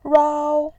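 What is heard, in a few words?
"rao", Mandarin Chinese, first tone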